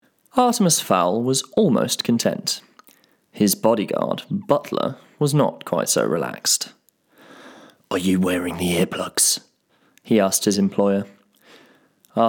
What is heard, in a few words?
Audio Book Extract